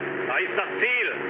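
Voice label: German near waypoint mood med, speech; War Thunder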